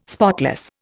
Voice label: "spotless", Indian